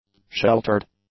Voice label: "sheltered", English; adjectives